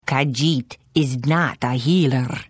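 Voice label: Hello, Khajiit female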